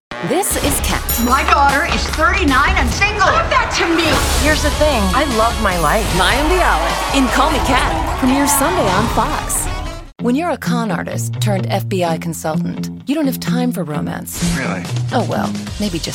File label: promo women